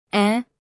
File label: Phoneme Female; Commonscript; Lynguistykon